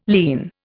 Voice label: "lierne", Indian